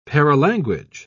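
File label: "paralanguage", British English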